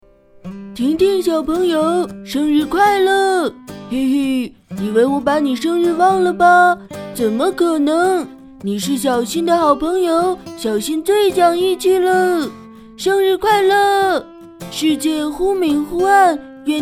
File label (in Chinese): 动画角色; 蜡笔小新